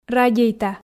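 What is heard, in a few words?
"радейта" Komi, female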